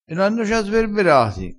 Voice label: "sberbera", vocabolario dialettale, crespinese

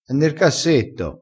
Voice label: "che di", crespinese

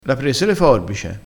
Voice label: "forbice", crespinese

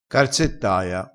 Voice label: "carzettaia", crespinese

